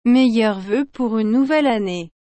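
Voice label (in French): "Meilleurs vœux pour une nouvelle année", French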